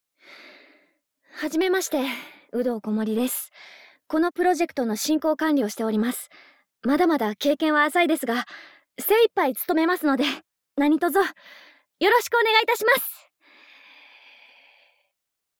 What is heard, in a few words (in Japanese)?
お姉さんの自己紹介